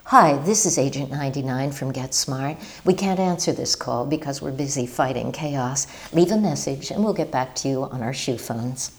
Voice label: voicemail